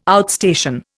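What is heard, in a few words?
"outstation", Indian English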